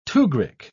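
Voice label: "tugrik", British English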